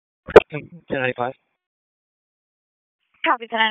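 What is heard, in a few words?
Police Downtown District Dispatch, p25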